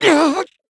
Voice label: Vox Deny, en; kingsraid; voices heroes